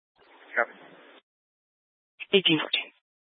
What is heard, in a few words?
Fire Dispatch